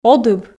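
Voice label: "одыб", Komi, female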